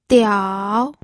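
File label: "diao", pinyin, third tone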